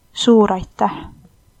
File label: thanks, estonian